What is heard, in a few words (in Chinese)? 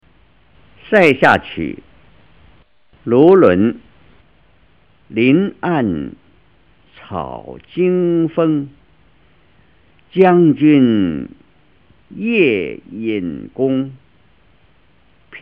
唐诗 朗读; 唐诗三百首; 夏青; 卢纶; 塞下曲2